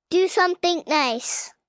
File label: Something Nice, Good Advice, 1 child, 5 yrs; Talking Sound Effects; Sound Effects:Voice; VoiceBox